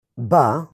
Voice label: "Buh", Hebrew; hebrew introduction